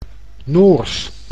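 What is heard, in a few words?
"Noors", Dutch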